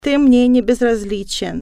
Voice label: male, russian; i love you